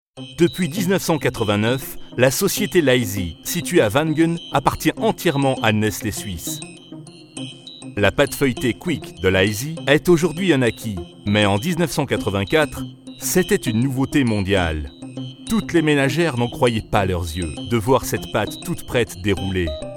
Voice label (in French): Voix grave Corporate; Nestle